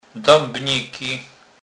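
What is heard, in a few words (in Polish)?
"debniky", Kurpie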